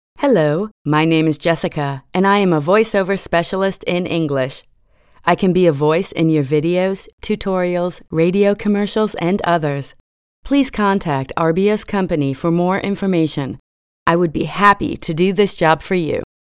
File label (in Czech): Americký dabing